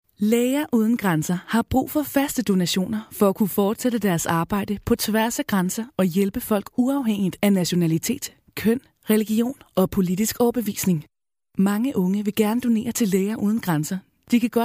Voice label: (MOT) Danu balsas, moteris

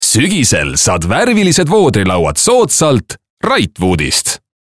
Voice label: (VYR) Estų balsas, vyras

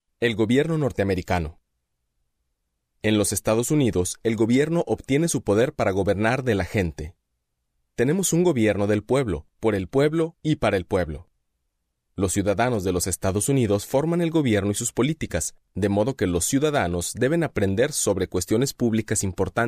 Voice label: Ispanu balsas, vyras

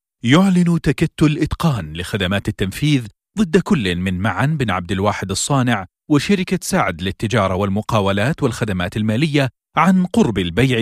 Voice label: (VYR) Arabų balsas; vyras

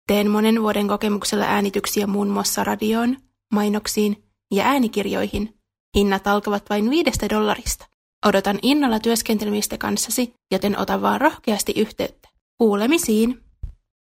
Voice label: fin, suomiu moteris

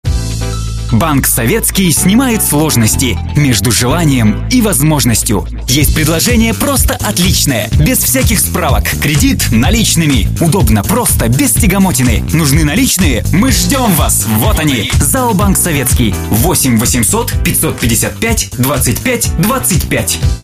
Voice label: Rusiškas balsas, vyras

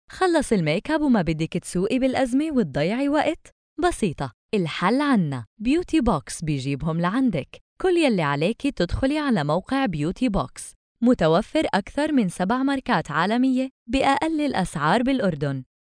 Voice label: (MOT) Arabų balsas

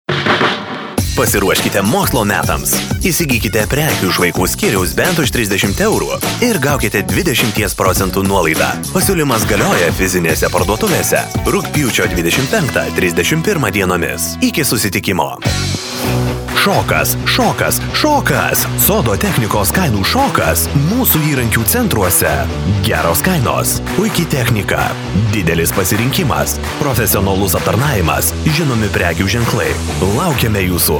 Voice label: lietuviu, vyras

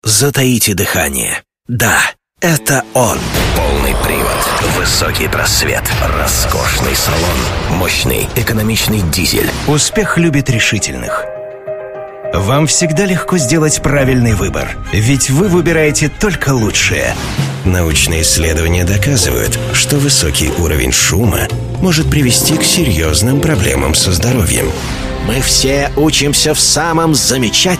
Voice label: Rusiškas balsas, vyras

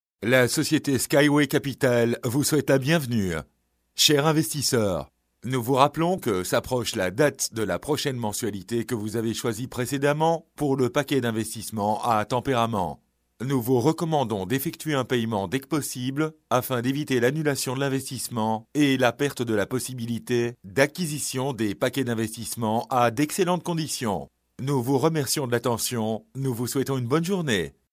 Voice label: VYR Prancuzu balsas, vyras

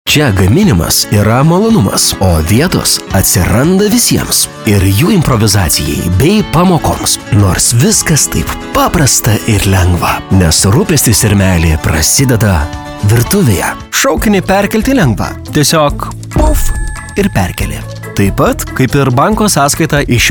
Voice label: lietuviu balsai, vyras

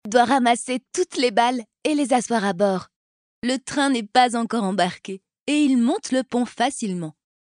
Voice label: (MOT) Prancuzu balsas; moteris

